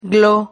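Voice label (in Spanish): "glo", Spanish syllable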